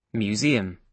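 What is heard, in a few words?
"museum", British English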